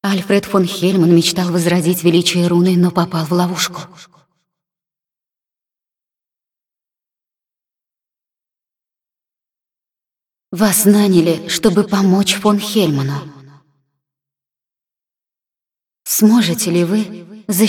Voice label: cinematic voice, ru; lineage2